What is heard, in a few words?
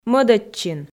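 "мӧдӧдчин", Komi, female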